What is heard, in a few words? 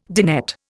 "dinette", Indian